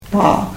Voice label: "paah", karuk